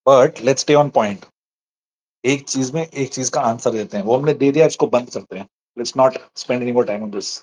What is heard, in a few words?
voice message